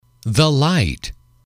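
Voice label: "light", English, male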